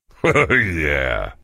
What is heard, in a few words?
cheer